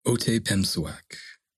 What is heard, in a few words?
Metis pronunciation; Land Acknowledgment 2024